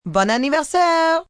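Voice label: Birthday French F, female, French; Occasion, event; Holiday; Voice Box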